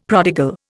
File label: "prodigal", Indian; Dictionary